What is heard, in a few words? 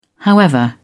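"however", British English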